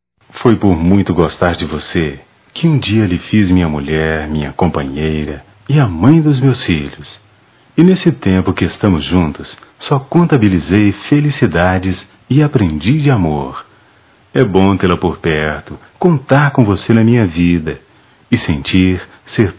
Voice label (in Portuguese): Romântica, masc; Dia dos Pais